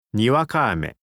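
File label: "niwakaame", Japanese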